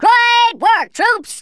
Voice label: perfect, Drill Sergeant; Worms Armageddon